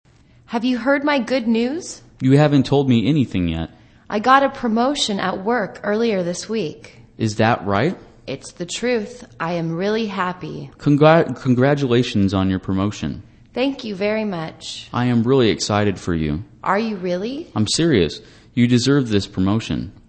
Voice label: english conversation Expressing joy